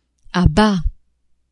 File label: "à bas", French; adverbs